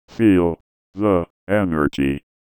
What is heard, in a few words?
VOICEFX ELCTR 140; Techno2